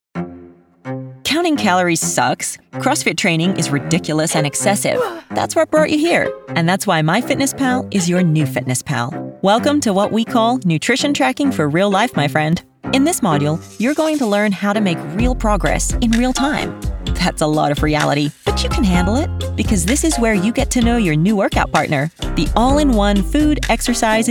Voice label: E-Learning